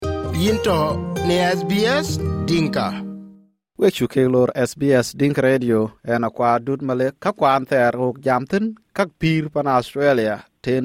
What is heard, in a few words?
dinka, podcast; Thiɛ̈ɛ̈kic cäth kek ku ba ya raan cï dööc pän ci yïn köök thin; SBS Dinka